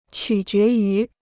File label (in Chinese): "取决于", Chinese